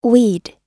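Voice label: "weed", English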